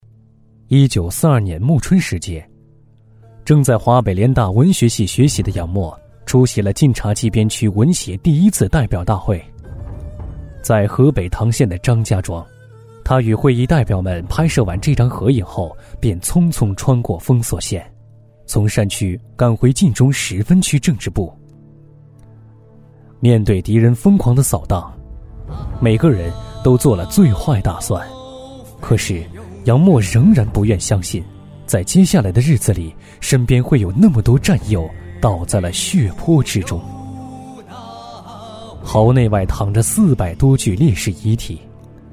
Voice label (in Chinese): 男-纪录片【年轻讲述】, 年轻活力 质感磁性; 麻灰回忆录